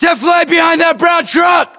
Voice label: order cover truck brown, battlechatter us; localized english